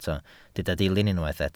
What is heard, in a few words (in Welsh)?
"dilyn" Welsh